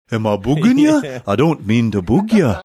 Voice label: saying am i bugging ya scouse accent

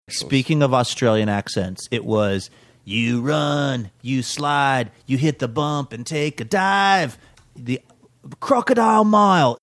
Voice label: reciting; crocodile mile ad

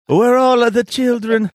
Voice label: funny

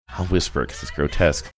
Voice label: whisper

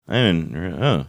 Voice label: mumbling

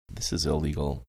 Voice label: quietly saying this is illegal

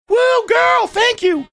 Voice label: saying well girl thank you funny

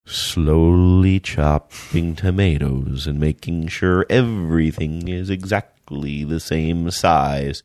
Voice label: slowly chopping tomatoes in slowed down manner

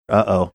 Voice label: uh oh

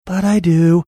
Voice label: saying but i do sing songy

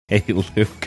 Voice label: chuckling saying hey

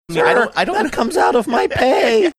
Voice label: saying sir that comes out of my pay creaky

